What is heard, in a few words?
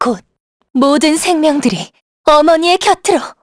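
Vox Victory, Korean; kingsraid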